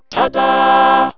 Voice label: tada, DECtalk; Aksel Christoffersen; DECtalk Sounds For Windows